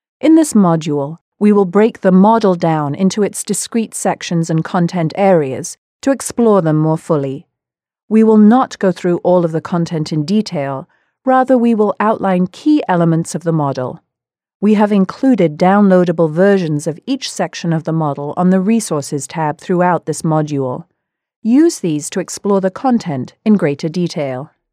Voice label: UK English, Voice Samples

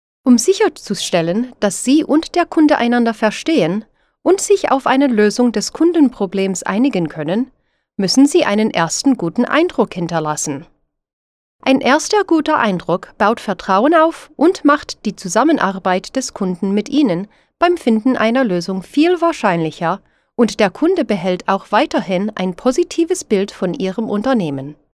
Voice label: German, Voice Samples, Foreign Language

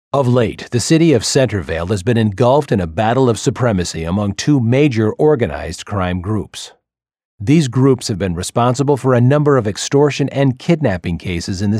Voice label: Character, Voice Samples

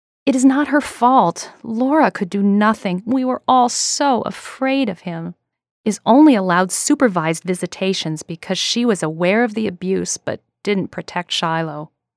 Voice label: Character, Voice Samples

